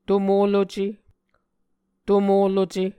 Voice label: "tomoluce", muscogee